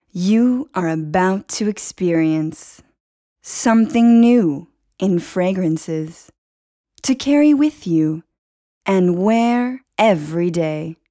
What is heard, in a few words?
Places, Ingles (USA)